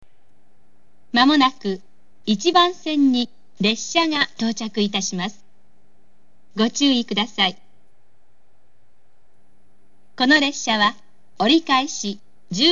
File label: rapid airport, station; new-chitose-airport; jr-hokkaido; otaru